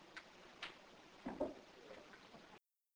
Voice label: Bedroom, Male Voice; pedricktown residence